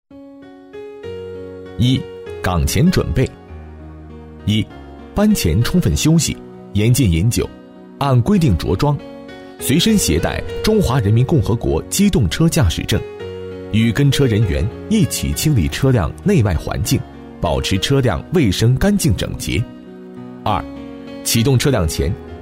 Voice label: 操作规范解说配音; 站台作业车管理

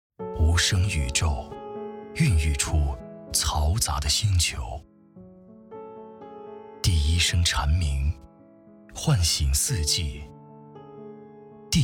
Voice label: 广告, 情感广告; 酷我音乐

